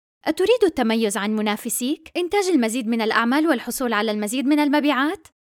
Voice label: Arabic promos

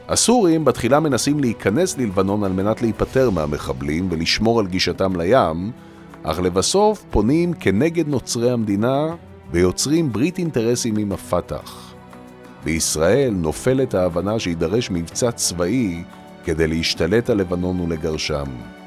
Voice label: hebrew documentaries

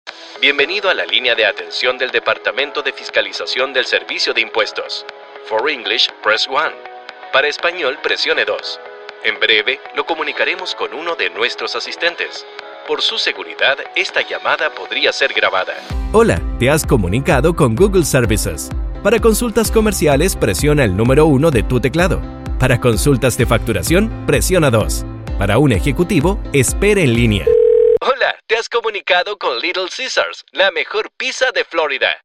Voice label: spanish latin american ivr

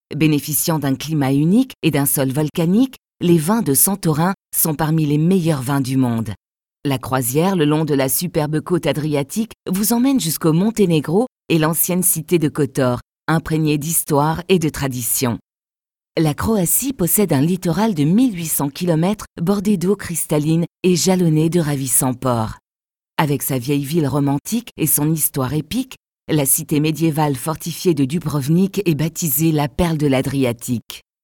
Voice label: french documentaries

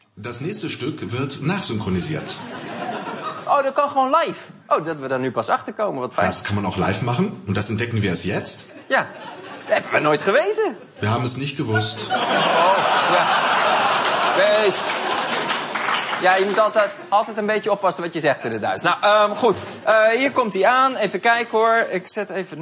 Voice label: german tv shows

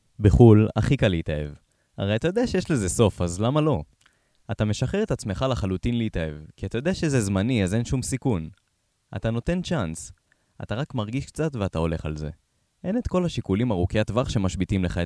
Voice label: hebrew audiobooks

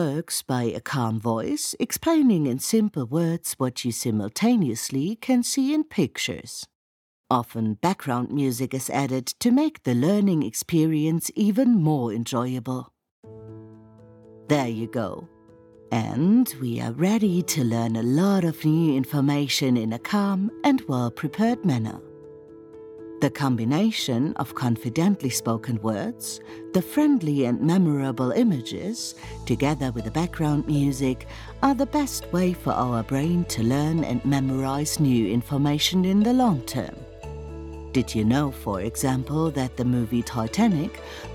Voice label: english explainer videos

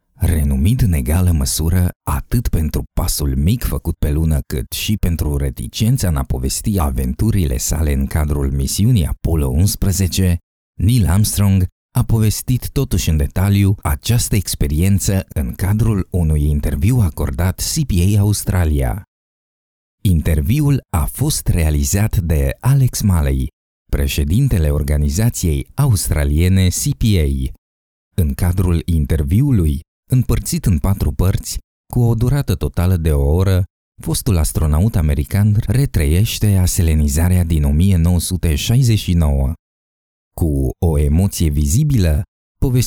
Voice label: romanian narration

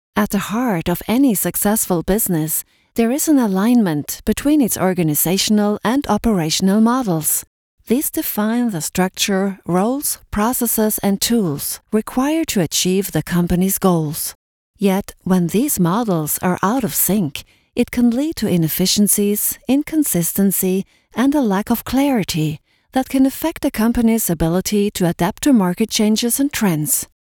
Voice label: English corporate videos; professional voice over artist native in both German and Norwegian

